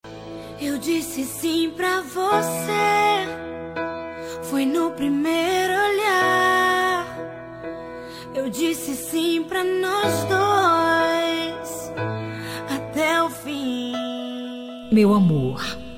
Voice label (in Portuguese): dia dos namorados reconciliação, voz feminina